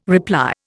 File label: "reply", Indian